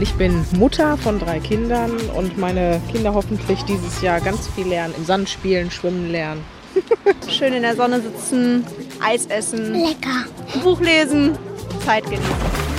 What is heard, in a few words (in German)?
Collage fröhlich Sommer